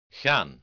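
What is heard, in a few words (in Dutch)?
"gaan", Dutch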